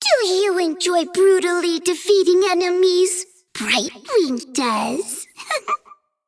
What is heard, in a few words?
Female Dragon Bark; Brightwing